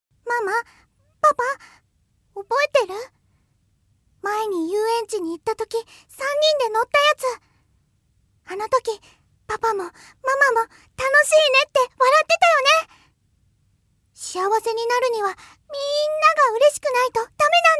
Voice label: 女の子

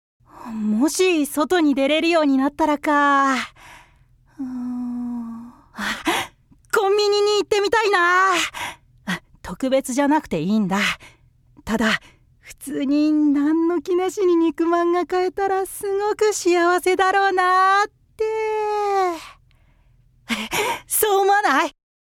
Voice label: 少年

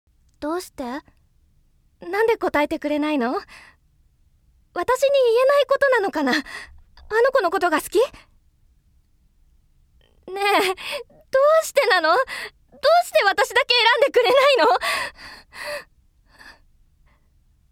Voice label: 淡々と話す女(大人 もの静か)

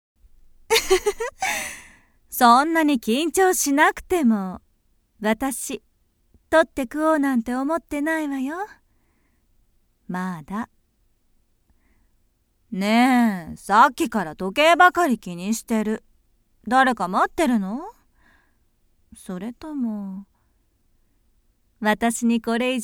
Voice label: お色気女性

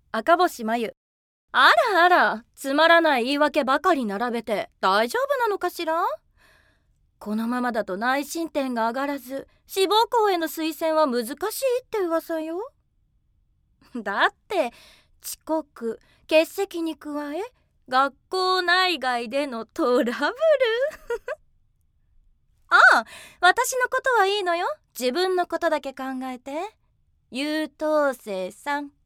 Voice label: 高飛車な女子高生; 出身地 ： 京都府

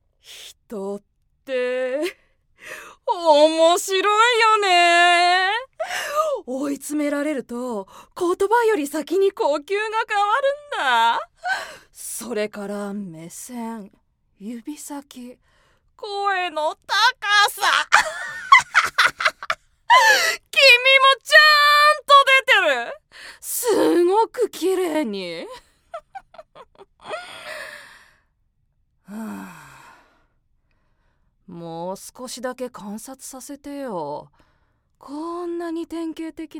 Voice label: サイコパスな少年

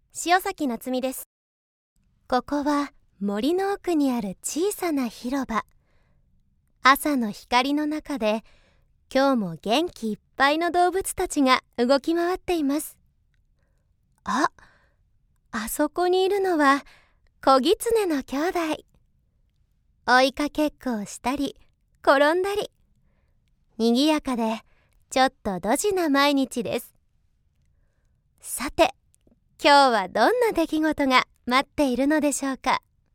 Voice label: 動物番組ナレーション

